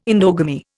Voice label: "endogamy", Indian